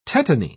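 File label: "tetany", English, British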